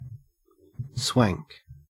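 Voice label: "swank", Australian English; pronunciations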